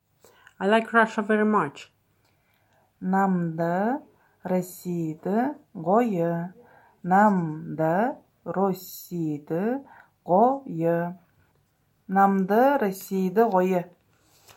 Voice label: I like Russia very much, Buryat